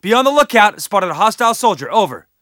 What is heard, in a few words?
spot; bf3